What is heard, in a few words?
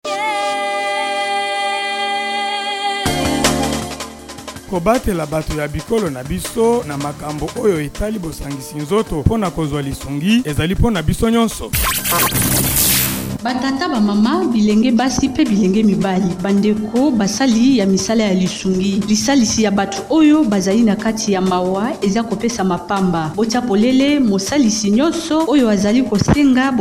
message lingala; RAPRODHK